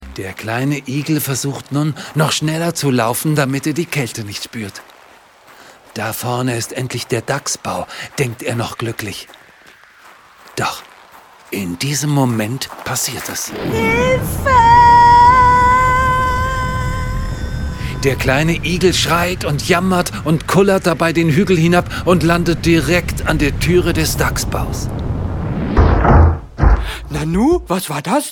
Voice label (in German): Hoerspielszene